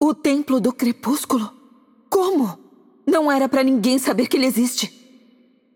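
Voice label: voice over, Brazilian Portuguese; Game Outro; bloom minigame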